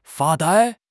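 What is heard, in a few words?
"发呆", Chinese; HSK6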